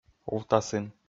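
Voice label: "увтасин", Komi, male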